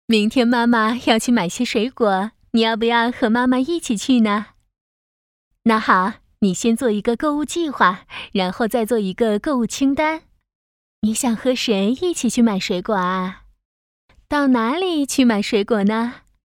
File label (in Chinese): 角色熊猫妈妈